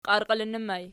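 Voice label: "qiarqiilinnu" ergative plural, Archi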